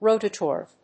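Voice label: "rotator", English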